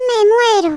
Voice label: "OH DEAR", Spanish